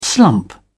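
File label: "slump", English, British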